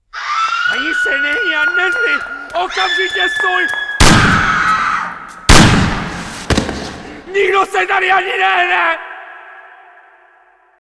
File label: woman; Mafie 1 cz